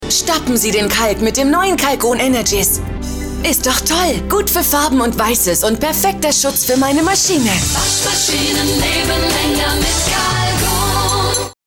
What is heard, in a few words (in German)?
Werbung Dynamisch